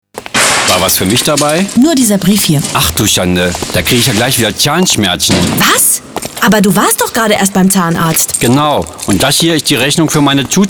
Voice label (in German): Gesundheitswesen Pharma Radiowerbung